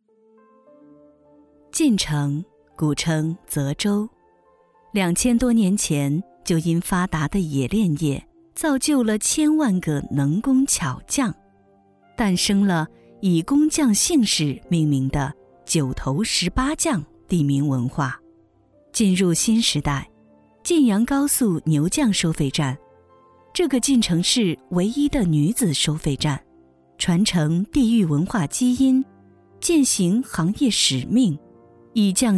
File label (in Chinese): 城市宣传片; 晋城